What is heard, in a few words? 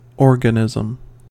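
"organism", US English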